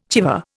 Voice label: "chèvre", Indian

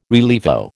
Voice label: "rilievo", American

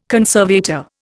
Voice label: "conservator", Indian

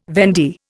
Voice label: "vendee", Indian

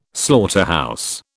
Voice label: "slaughterhouse", British

